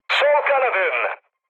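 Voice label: Turkish left wing, mood high, speech; War Thunder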